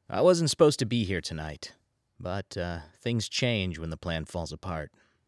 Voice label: Standard US Natural